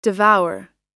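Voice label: "devour", American English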